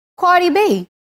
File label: Sweet Intro Example, Voice Distortion; Radio Geretsried; Sendungen Kempfenhausen